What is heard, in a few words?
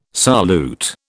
"solute", British